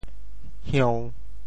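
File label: "hieu~1", Teochew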